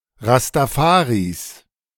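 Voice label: "Rastafaris", German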